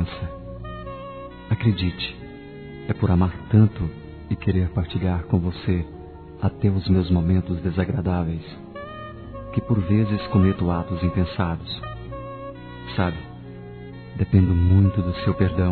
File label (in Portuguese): preciso de suas desculpas, masc; Telemensagens 2017